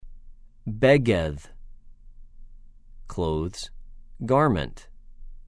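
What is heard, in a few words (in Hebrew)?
"בֶּ֫גֶד", Hebrew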